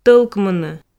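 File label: "тӧлкмыны", Komi, female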